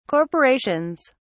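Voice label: "corporations", British English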